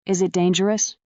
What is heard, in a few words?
fem